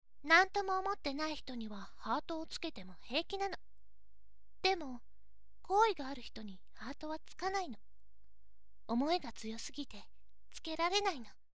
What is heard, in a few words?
voice onnna